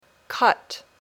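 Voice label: "cut", English word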